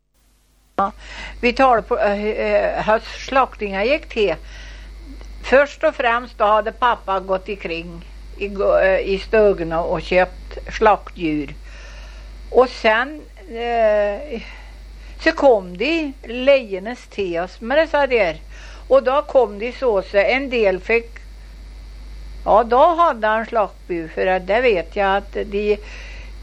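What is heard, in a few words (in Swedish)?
Köla Värmland, Svenska sagor, dialekter; Folkminnen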